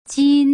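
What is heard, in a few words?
"jin", Mandarin Chinese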